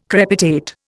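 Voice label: "crepitate", Indian English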